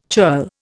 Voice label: "churl", Indian